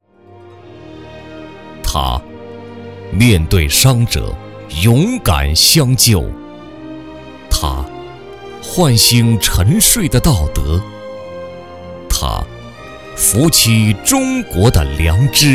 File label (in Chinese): 国语男声配音; 人物颁奖; 类似感动中国; 央视老师